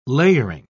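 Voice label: "layering", British English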